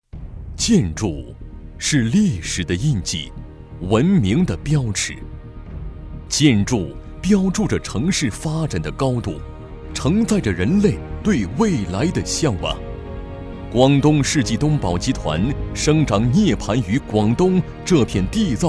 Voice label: 宣传片 企业 力度, 男国; 世纪东宝集团

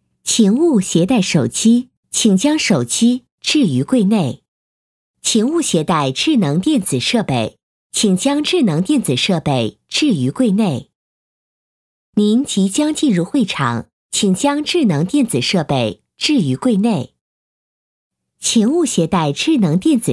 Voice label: 多媒体 广播提示 提示音 会场, 女国

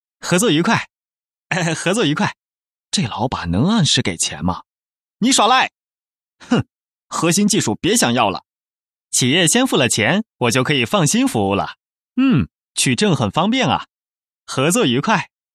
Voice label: 动画, 角色, 年轻小伙子

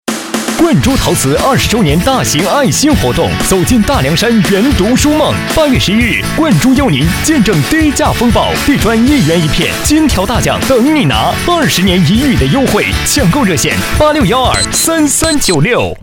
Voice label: 广告 促销 陶瓷 活力, 男; 冠珠陶瓷

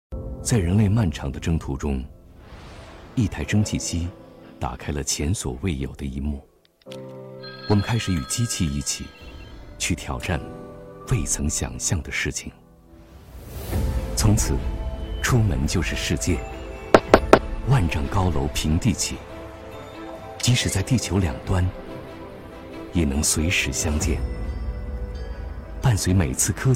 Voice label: 宣传片 企业 科技; 百度用科技让复杂的世界更简单

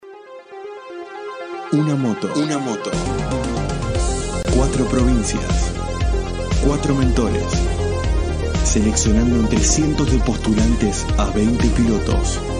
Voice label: 西班牙语男 外语 小语种 活力

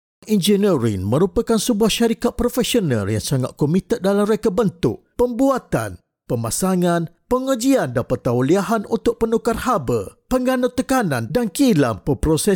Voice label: 马来西亚语男, 外语, 小语种

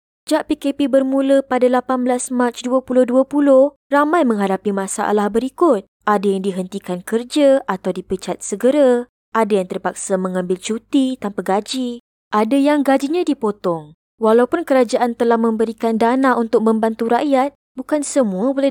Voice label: 马来西亚语女 外语 小语种

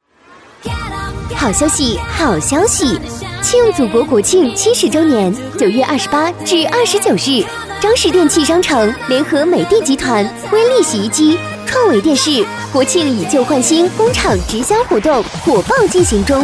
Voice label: 广告 促销 好消息 甜美

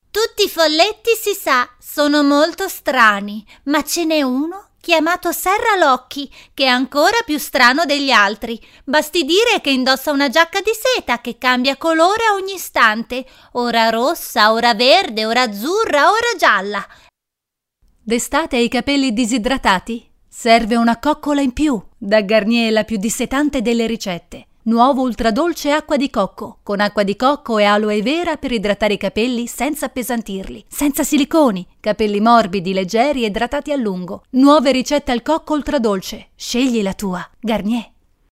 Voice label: 意大利语女 外语 小语种 童声模仿

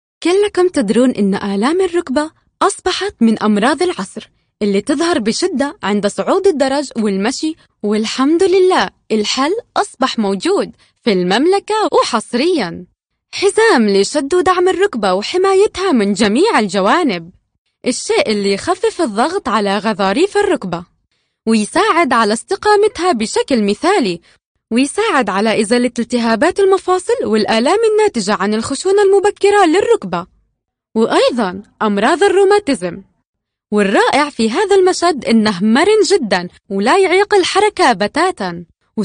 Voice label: 阿拉伯语女 外语 小语种

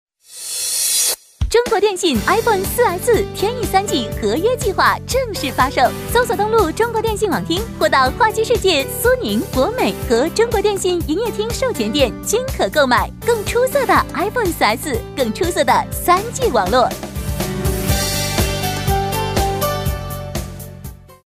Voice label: 广告 手机通信 活力; 中国电信

